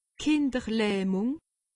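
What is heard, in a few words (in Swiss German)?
"kinderlahmung", Alsatian; medecine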